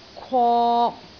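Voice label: "kwok3", Cantonese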